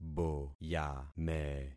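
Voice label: "boyame", concatenated syllables; Affixing experiment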